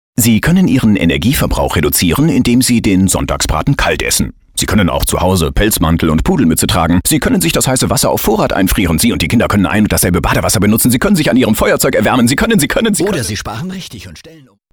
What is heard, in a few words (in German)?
Werbung